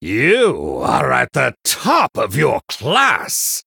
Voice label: Male Human First Place

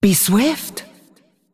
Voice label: Female Dragon Time

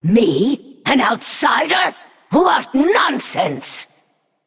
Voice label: Female Naga greetings

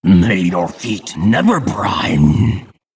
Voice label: Male Jinyu Play

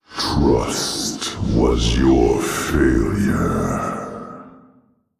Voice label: Male Old God Creature

